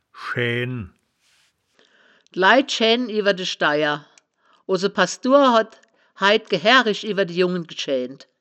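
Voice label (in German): "schaennen", Wittlicher Wörterbuch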